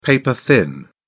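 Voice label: "paper thin", British English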